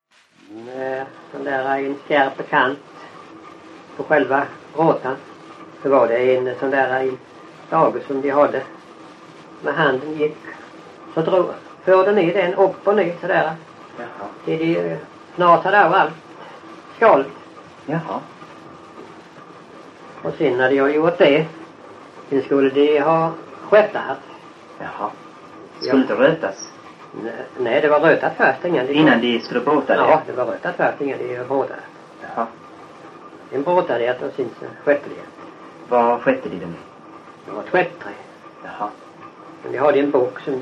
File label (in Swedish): Öljehult, dialekter